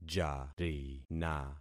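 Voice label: "jarina", concatenated syllables; Affixing experiment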